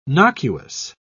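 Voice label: "nocuous", British English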